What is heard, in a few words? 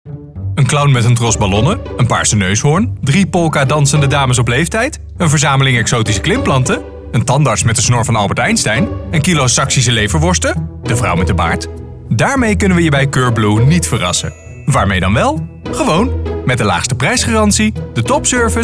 male dutch commercial compilation tongue in cheek